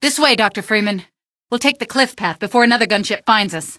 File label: exit cliff path, female; Garry's Mod; hl2